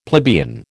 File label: "plebeian", American